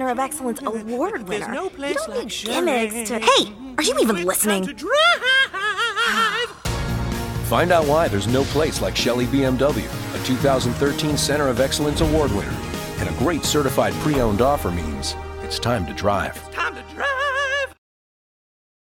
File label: Jingle; BMW